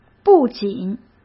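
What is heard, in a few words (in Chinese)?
"不仅", Mandarin Chinese; hsk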